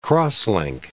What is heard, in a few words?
"cross-link", English